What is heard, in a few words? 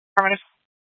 Fire Dispatch